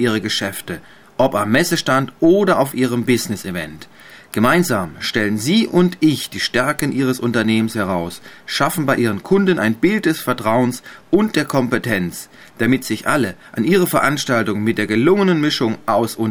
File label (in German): stimmprobe moderator